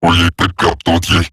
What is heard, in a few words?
Attack Robot Large; Battle; Eximus; Eximus Corpus Robotic Large; en